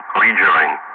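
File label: "rejoin", English; Ka-50; Wingman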